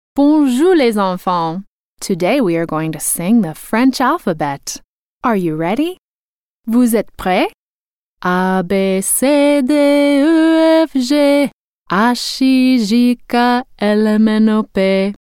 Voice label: French